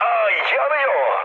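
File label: Turkish on fire, mood low, speech; War Thunder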